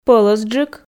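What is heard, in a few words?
"пӧлӧсджык" Komi, female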